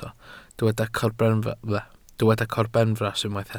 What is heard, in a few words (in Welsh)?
"corbenfras" Welsh